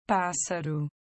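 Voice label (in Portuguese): "bird", Portuguese; FoodChain.activity; pt BR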